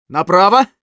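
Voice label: Scout head right, russian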